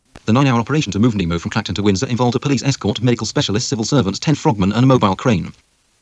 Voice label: nemo fast